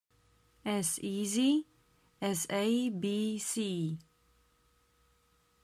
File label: as easy as abc pronunciation slow; Best English Nitra